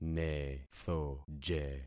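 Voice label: "nethoje", concatenated syllables; Affixing experiment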